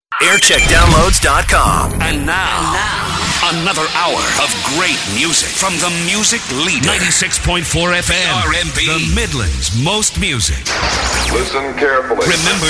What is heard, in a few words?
imaging; brmb; 1995